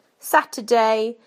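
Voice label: "Saturday", British English; PictureDictionary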